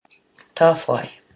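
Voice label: "tawhai", Māori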